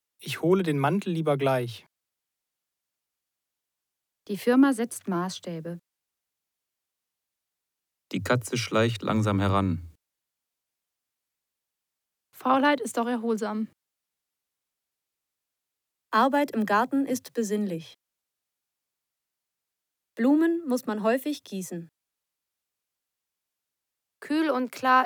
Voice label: German P835 sentences, speech data; TS 103 281; Annex E; 4convergence